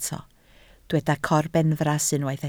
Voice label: "corbenfras", Welsh

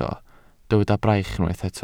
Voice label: "braich", Welsh

